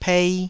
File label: "pei2", Taiwanese